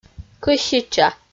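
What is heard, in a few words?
"кӧсича", Komi, female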